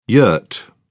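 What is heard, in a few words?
"yurt", British English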